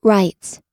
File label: writes, us